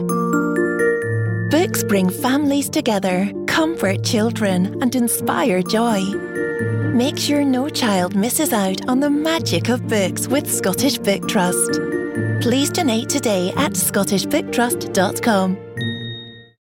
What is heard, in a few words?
children; scottish book trust